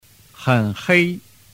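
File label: "henhei", Chinese; giao trinh 301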